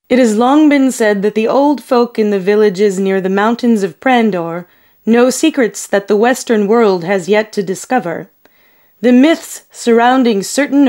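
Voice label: F EN documentary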